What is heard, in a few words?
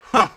Male FX Vocals; Houseworx